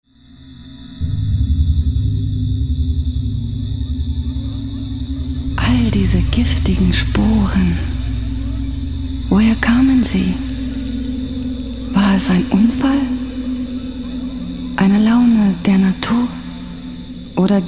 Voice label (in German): Promo; sprecherin; Schrate